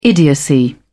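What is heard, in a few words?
"idiocy", English, British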